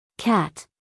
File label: "cat" US English, female